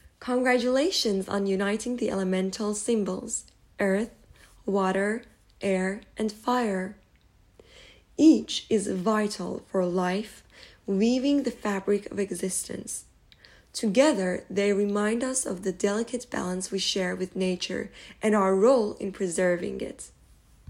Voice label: Collect all 4, Narration